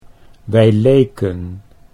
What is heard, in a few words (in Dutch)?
wij leken, Dutch